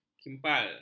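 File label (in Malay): "kimpal", Malay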